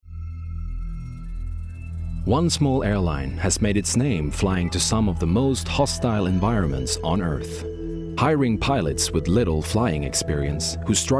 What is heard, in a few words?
Documentary reel